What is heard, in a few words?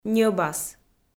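"ньӧбас", Komi, female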